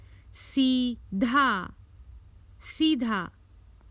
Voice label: Straight ahead, Common Hindi Phrases for travel

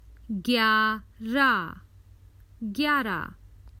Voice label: Common Hindi Phrases for travel Eleven

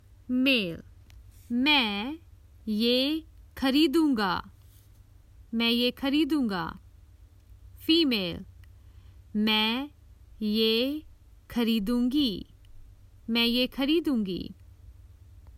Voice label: Ill buy it, Common Hindi Phrases for travel

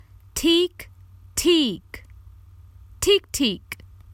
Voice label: So so, Common Hindi Phrases for travel

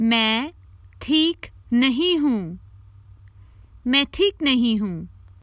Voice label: Common Hindi Phrases for travel I'm not well

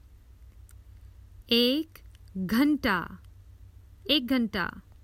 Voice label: An hour, Common Hindi Phrases for travel

